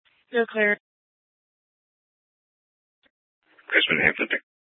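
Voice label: Police Department Dispatch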